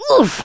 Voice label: oof, Rasta; Worms Armageddon

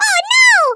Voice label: "grenade", American; Worms Armageddon

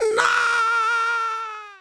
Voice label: "nooo", Danish; Speech; Worms Armageddon; Danish

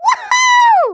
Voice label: "Surf", American; Speech; Worms Armageddon

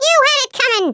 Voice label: "revenge", American; Worms Armageddon

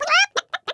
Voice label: "coward", American; Worms Armageddon

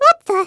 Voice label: "what the", American; Worms Armageddon

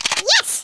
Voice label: "Collect", American; Speech; Worms Armageddon

